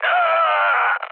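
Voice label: Korean damaged pilot mood med, speech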